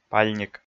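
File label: "пальник", Komi, male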